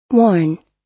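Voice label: "warn", US English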